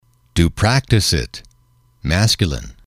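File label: "to practice it", English, male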